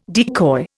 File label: "decoy", Indian